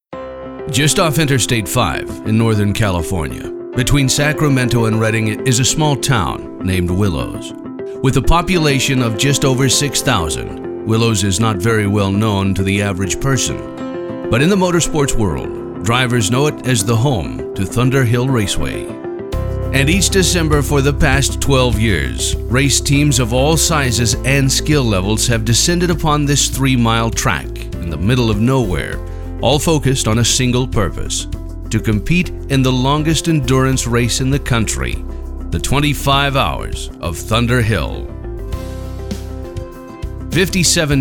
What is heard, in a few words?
Television Narration Car Racing